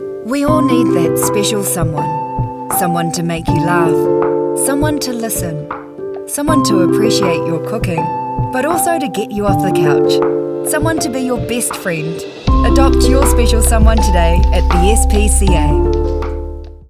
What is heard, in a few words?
HEARTFELT; SPCA